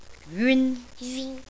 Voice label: "uun", phonics